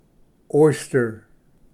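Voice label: "oyster", Pronunciation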